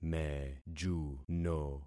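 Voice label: "mejuno", concatenated syllables; Affixing experiment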